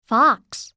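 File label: "fox", English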